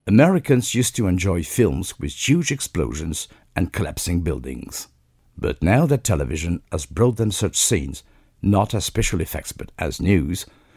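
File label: English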